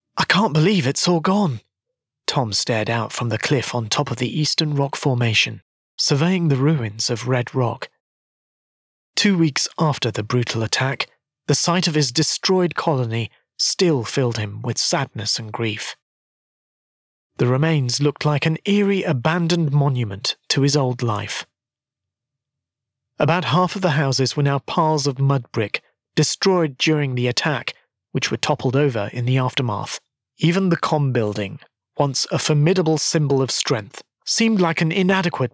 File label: AudioBook Narration Science Fiction